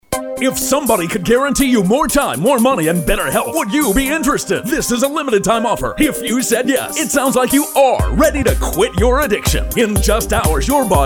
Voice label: Radio Announcement; Addiction Free Canada

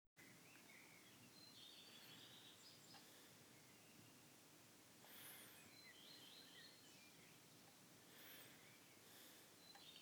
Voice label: Swedish

